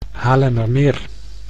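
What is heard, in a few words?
"Haarlemmermeer", Dutch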